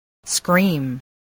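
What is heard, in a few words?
"scream", English word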